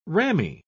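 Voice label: "ramie", British English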